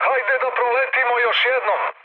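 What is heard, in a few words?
Serbian bomb failure mood med, speech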